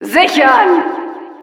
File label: Announcer secure, de